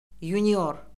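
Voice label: "yunior", Azerbaijani; Orfoqrafiya Lüğəti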